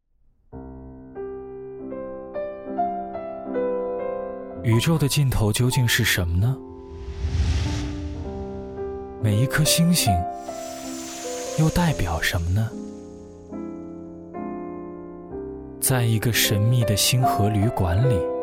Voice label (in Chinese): 宣传